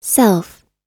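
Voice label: "self", US English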